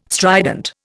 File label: "strident", Indian